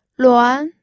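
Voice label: "luan", second tone, pinyin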